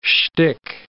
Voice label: "schtick", English